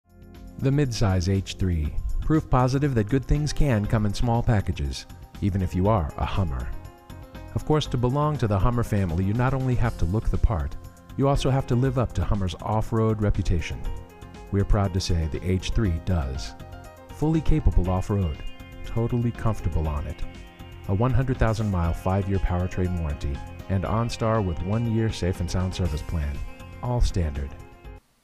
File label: commercial